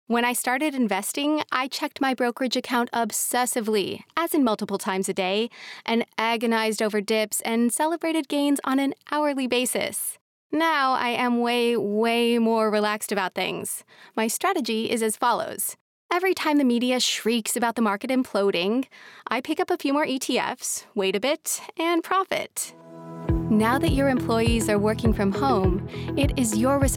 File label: E learning Samples